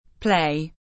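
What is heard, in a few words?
"play", English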